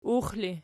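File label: "ux" ergative, Archi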